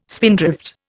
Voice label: "spindrift", Indian

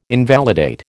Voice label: "invalidate", American

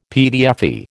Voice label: "PTFE", American

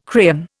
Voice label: "crayon", Indian

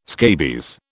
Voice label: "scabies", British

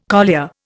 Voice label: "collier", Indian

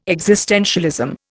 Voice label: "existentialism", Indian

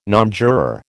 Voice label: "nonjuror", American

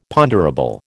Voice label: "ponderable", American

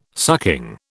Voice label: "sucking", British

